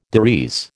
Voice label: "des res", American